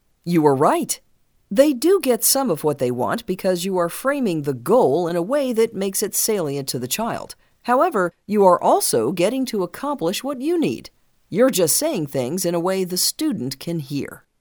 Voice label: ELearning Sample